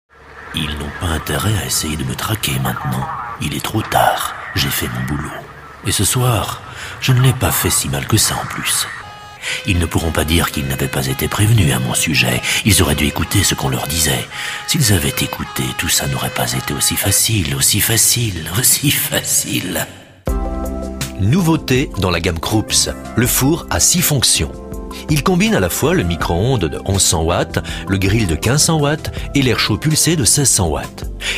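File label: French, male, narration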